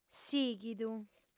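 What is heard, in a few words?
"sìghidu", Sardinian, female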